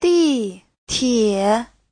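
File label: "dìtiě", Mandarin Chinese; 地铁; Giáo trình chuẩn HSK3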